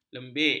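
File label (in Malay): "lembik", Malay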